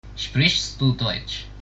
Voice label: do you speak, german; Sprichst du Deutsch?

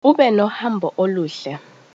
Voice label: bon voyage zulu

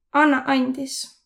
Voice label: excuse me, voro

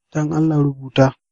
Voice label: write, hausa

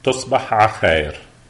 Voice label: goodnight, lebanese arabic

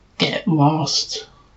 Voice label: "go away", English

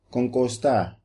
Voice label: how are you, Papiamento

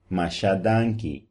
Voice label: "thanks", Papiamento

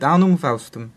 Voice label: new year, latin; Annum faustum

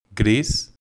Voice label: "grey", Catalan

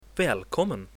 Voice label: welcome, swedish

